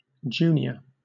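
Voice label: "junior", British English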